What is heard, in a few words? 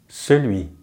"celui", French; Consonantes en francés; easypronunciation